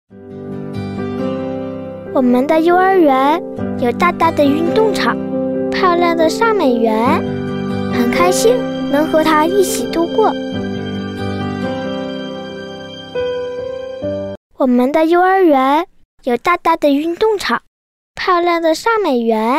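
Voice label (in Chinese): 女大音-女真童-我们的幼儿园; 真童配音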